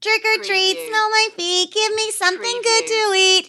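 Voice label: Kid Says Trick or Treat Smell My Feet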